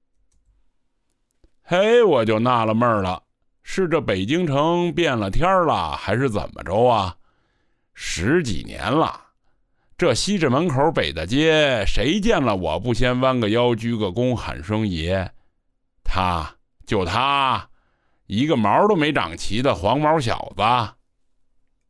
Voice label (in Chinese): 京腔试音